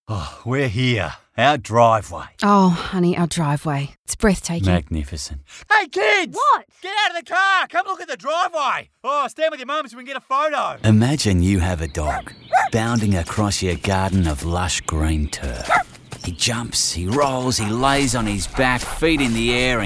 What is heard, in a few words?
VOICE ACTOR